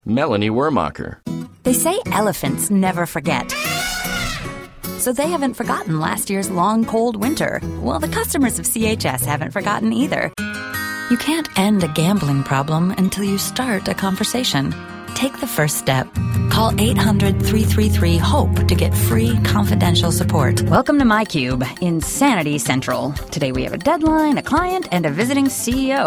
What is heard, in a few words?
female commercial